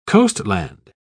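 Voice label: "coastland", British English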